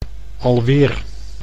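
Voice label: "alweer", Dutch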